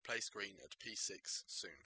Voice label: male mask recon

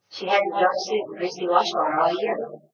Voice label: speech f; dereverb

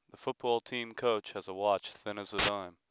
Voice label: noisy

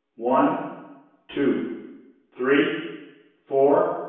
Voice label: digits reverb

